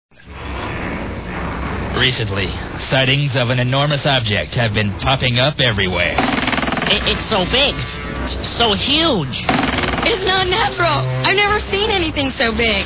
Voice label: Commercials; J Holmes Pizza; Freekin Weird Audio